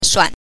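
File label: "shuan", neutral tone, Mandarin Chinese pinyin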